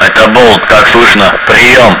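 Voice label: "как слышно", radio; svencoop; paranoia; bolt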